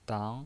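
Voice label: "dang", Mandarin Chinese pinyin voice, second tone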